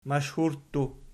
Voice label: "mashhurttu", Archi